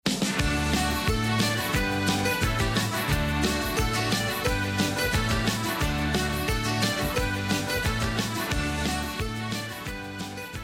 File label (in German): Mundart; Bilanz des LIFE-Projekts Hohes Venn